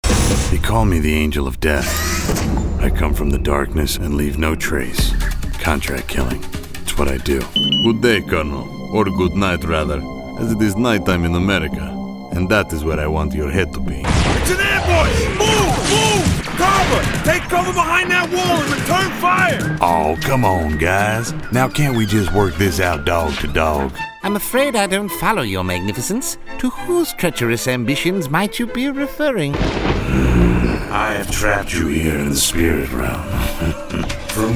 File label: Men Animation